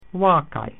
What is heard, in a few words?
"cows", Latin